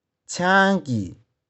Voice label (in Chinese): "chiang3ki0", 玉環